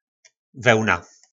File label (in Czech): "Vełna", nářečí Po našimu; Vlna